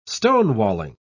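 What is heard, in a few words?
"stonewalling", English, British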